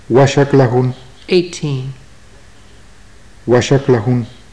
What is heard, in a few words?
numbers, maya